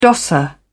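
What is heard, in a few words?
"dosser", British English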